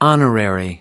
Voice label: "honorary", us